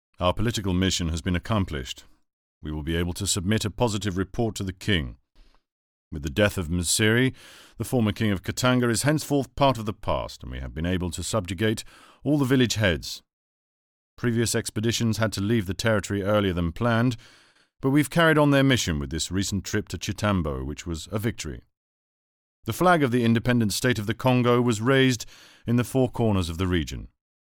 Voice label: posh accent, voiceover; Colonel